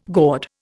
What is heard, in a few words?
"gourd", Indian